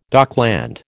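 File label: "dockland", American English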